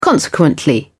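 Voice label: "consequently", British English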